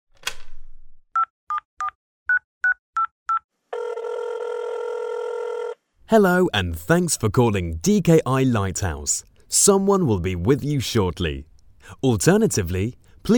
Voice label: IVR Voice Over